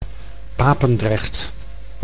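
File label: "Papendrecht", Dutch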